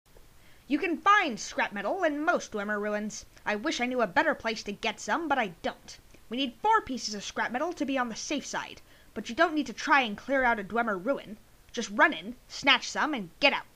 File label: bosmer, wood elf voice auditions